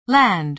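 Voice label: "land", American English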